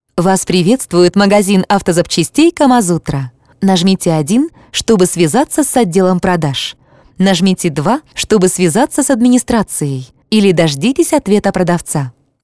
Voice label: IVR; Kamazutra